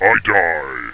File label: i die, death rumbly